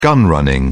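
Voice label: "gun running", British English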